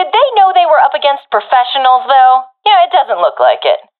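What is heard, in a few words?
round winning, professional female; vo; agents; Source Model Packs; Counter Strike Model Pack; CS2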